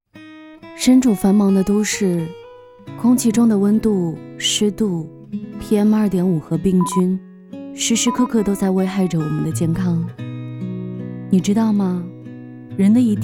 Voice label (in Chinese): 呼吸 自然 舒缓 广告